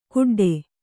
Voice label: "ಗುಡ್ಡೆ", Kannada

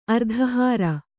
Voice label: "ಅರ್ಧಹಾರ", Kannada

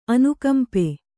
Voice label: "ಅನುಕಂಪೆ", Kannada; anukampe; noun

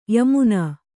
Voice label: "ಯಮನ", Kannada

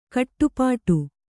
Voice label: "ಖಟಿಪಿಟಿ", Kannada

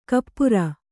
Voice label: "ಕುಪರಿ", Kannada

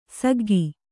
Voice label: "ಸಿಗ್ಗು", Kannada

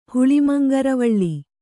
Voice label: "ಹುಳಿಮಂಗರವಳ್ಳಿ", Kannada

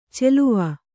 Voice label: "ಚೆಲುವ", Kannada

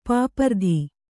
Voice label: "ಪಾಪರತ", Kannada

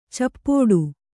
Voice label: "ಚಪ್ಪೋಡು", Kannada

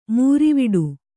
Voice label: "ಮೂರಿವಿಡು", Kannada

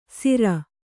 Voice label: "ಸಿರ", Kannada

